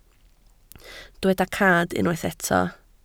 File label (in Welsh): "cad", Welsh